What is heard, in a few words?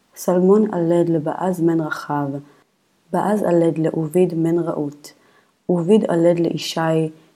Matthew Reading Practice, Aramaic